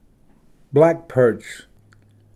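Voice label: "black perch", English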